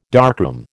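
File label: "darkroom", American English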